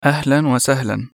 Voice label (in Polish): "witaj", Egipski Arabski dialekt; nowo-arabski